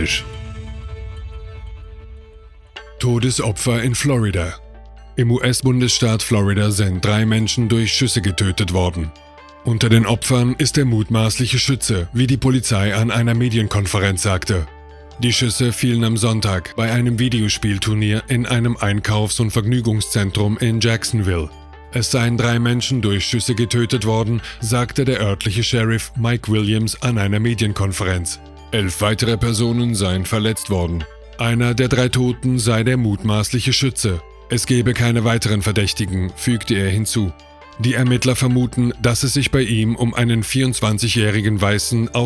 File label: news nachrichten; kommentar; Dominik Dachs und d Katzepirate